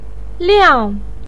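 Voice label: "liang", Mandarin pinyin, fourth tone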